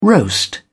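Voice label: "roast", British English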